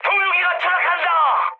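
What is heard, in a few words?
Korean aircraft destroyed mood high, speech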